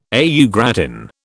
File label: "au gratin", British